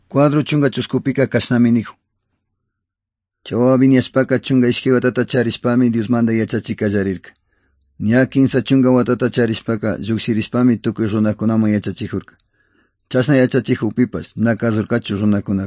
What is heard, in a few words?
good news quichua otovalo